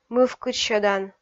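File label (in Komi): "мывкыдсьӧдан", Komi, female; kpv audio dict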